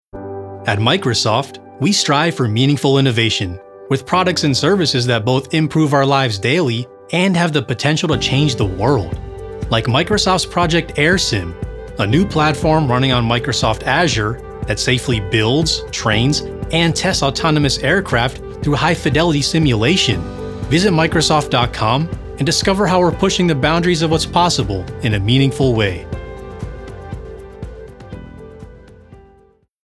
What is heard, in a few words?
Corporate; MICROSOFT